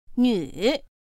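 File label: "nǚ", Mandarin Chinese pinyin; pinyin2; pinyinji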